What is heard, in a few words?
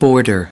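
"border", English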